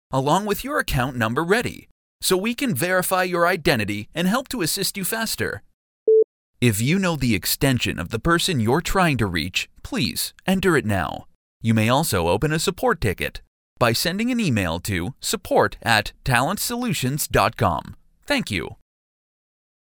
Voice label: On Hold Messaging